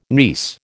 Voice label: "niece", American